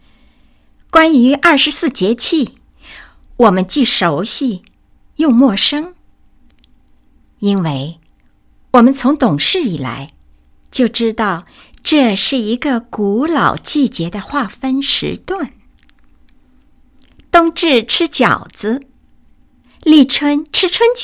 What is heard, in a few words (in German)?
China W4, voiceactors